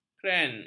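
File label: "kren", Malay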